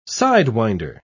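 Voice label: "sidewinder", British English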